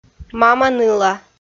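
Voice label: "мама-ныла" Komi, female; kpv audio dict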